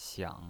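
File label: "xiang", pinyin voice, third tone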